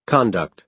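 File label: "conduct", American English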